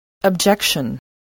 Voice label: "objection", voice, spelling